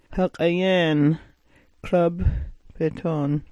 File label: "ha'kayaan", smalgyax